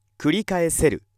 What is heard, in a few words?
male